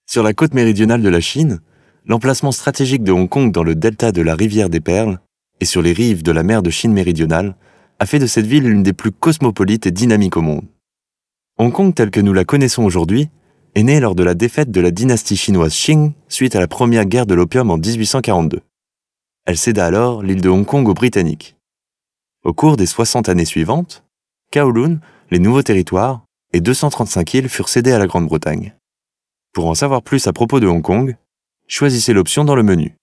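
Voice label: French male corporate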